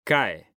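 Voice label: "CAE", Spanish, male; mekanta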